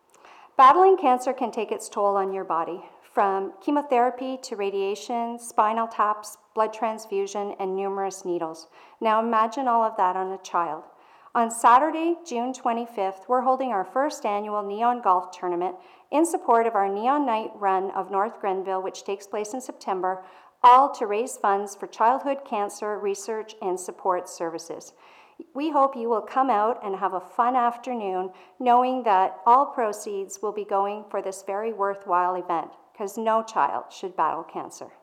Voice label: Voice over; for Neon Golf